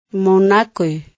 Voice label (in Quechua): "munakuy", Quechua